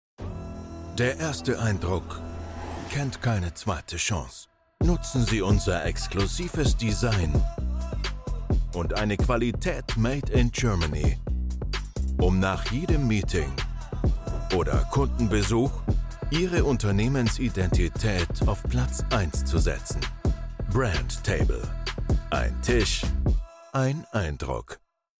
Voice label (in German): Werbung; Brandtable